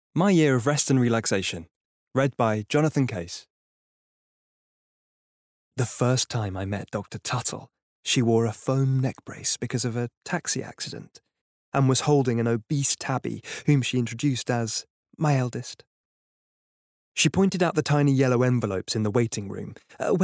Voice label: Bookread